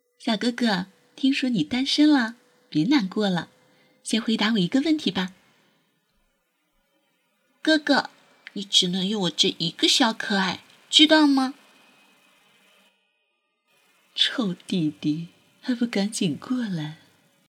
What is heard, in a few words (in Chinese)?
变声